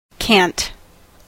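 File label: "can t", US English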